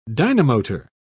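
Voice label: "dynamotor", British English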